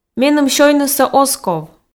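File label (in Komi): меным сёйнысӧ оз ков, Komi, female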